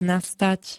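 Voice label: "nastať", Slovak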